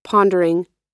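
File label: "pondering", American English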